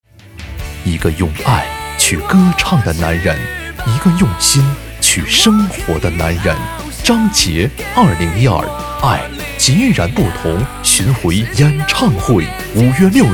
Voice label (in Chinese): 男 商业广告 演唱会